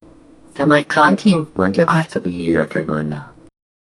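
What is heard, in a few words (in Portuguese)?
uma aeromoça diz apertem o, airport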